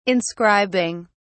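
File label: "inscribing", British English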